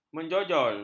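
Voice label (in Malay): "menjojol", Malay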